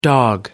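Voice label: "dog", English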